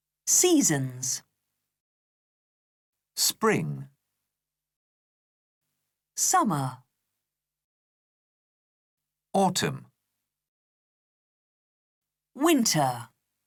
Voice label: Learn English the Seasons